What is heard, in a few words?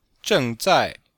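"zheng4zai4", Chinese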